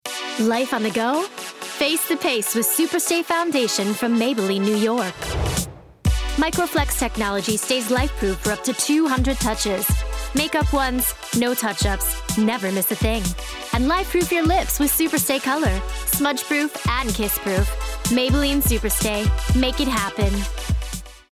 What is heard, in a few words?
sassy pacey; Maybelline